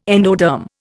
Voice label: "endoderm", Indian

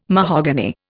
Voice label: "mahogany", Indian

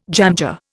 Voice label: "jamjar", Indian

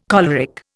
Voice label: "choleric", Indian

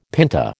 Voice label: "pinta", American

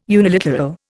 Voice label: "uniliteral", Indian

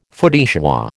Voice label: "feu de joie", American

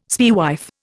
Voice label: "spaewife", Indian

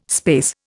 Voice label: "space", Indian

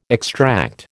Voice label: "extract", American

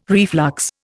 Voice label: "reflux", Indian

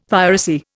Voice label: "piracy", Indian; Dictionary

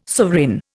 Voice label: "sovereign", Indian

